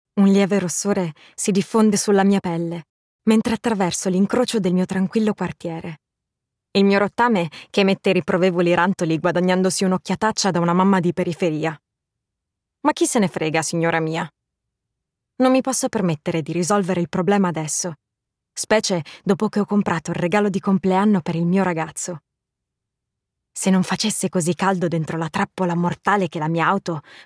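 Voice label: Italian female Audiobook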